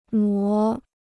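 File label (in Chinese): "挪" Chinese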